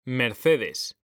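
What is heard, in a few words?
"mercedes", Spanish; mekanta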